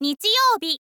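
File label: "nichiyoubi", Japanese, girl; voice; info girl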